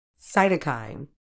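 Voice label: "cytokine", British English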